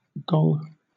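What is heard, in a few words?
"gong", British English; pronunciations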